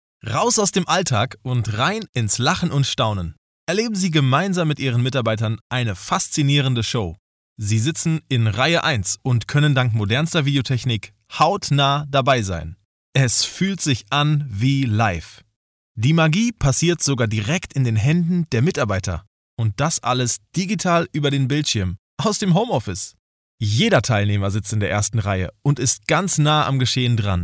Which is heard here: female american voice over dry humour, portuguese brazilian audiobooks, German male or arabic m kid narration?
German male